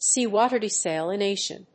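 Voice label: "sea-water desalination", English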